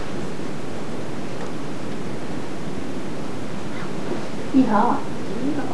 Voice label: help whisper